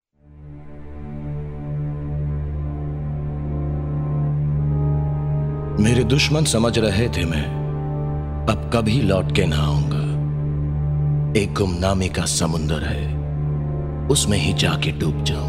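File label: Dialogue background music Ringtone; Aa Raha Hoon Palat Ke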